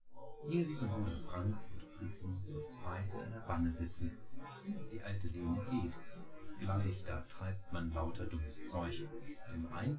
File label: male synth conv 5cm; SASSEC07